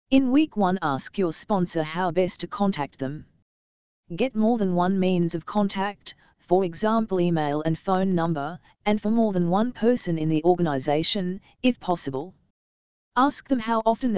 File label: dialog